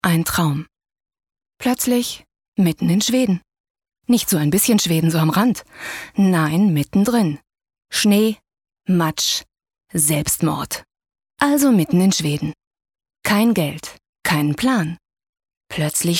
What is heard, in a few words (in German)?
Schauspiel, Dynamisch